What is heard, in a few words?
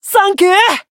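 healed, Japanese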